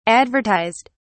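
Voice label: "advertised", British English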